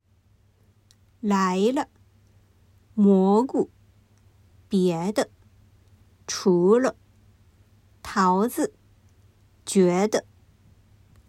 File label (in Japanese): 二声軽声